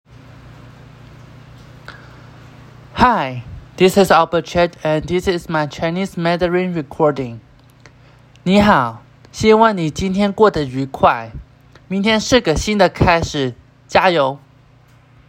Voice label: Chinese Mandarin

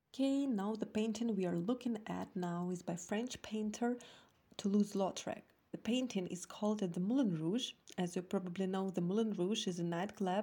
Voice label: american

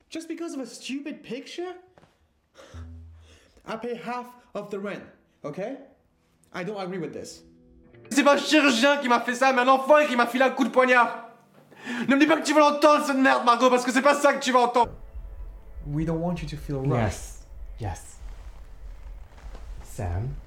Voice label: NATIVE AMERICAN REEL FRENCH

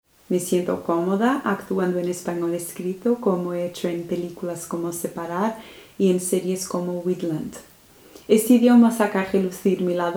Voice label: SPANISH

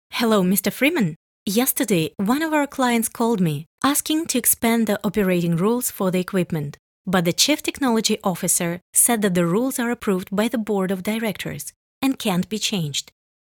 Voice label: EN Conv

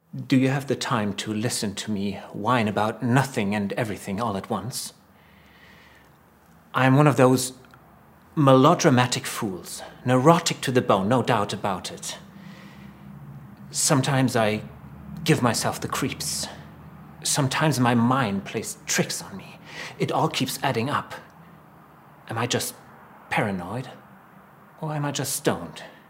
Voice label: British English

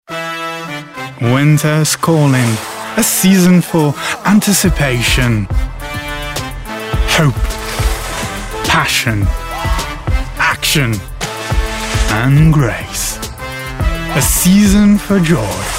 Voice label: Commercial English

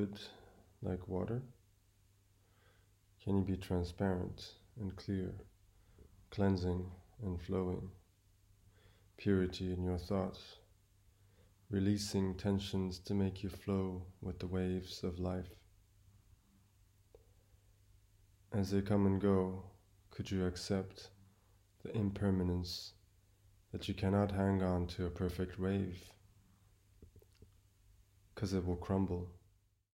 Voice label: Poetry spoken; Elemental Freedom

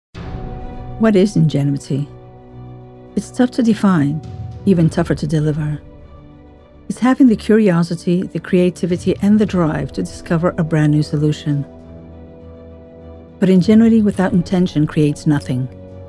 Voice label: american english; CAPCO

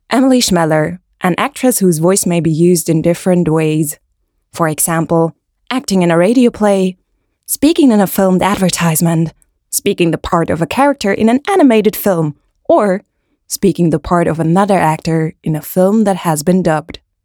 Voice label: General American Intro

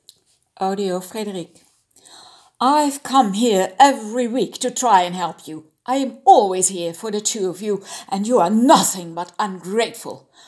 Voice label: my english

